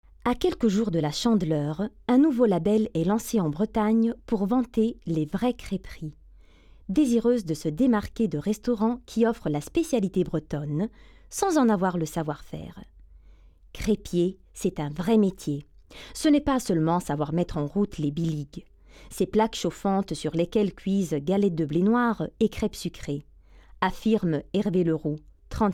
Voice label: FRANCESE IMPOSTATA

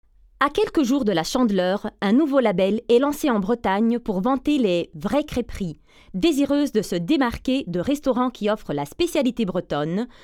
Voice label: FRANCESE DINAMICA

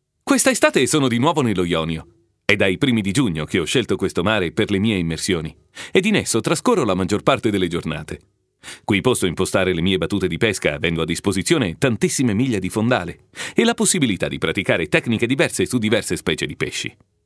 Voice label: ITALIANO, SPEAKER

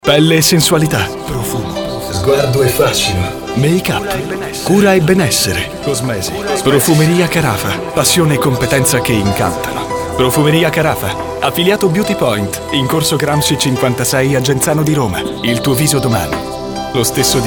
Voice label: ITALIANO IMPOSTATa

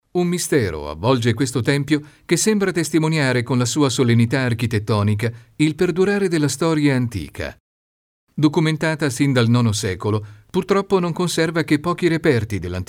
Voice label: ITALIANO NORMALE

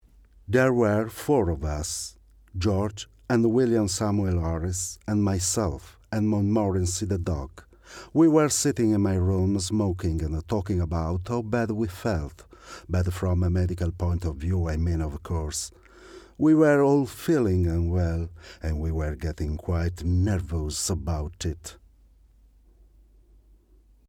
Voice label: INGLESE IMPOSTATA

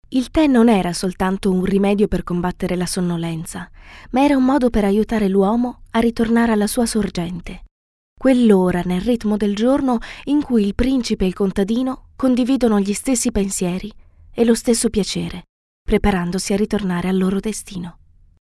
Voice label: normale, speaker italiano; FEMMINILE; Speaker Madrelingua

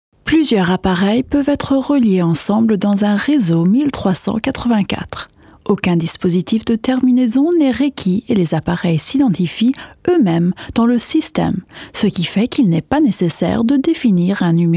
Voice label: FRANCESE IMPOSTATO

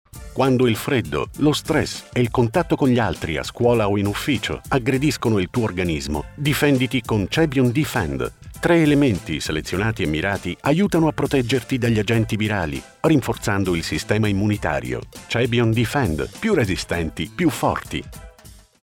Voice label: ITALIANO IMPOSTATA

